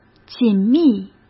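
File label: "紧密", Mandarin Chinese; hsk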